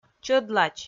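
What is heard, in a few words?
"чӧдлач", Komi-Zyrian, female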